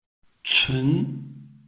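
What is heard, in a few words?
"cun", Chinese, tone 2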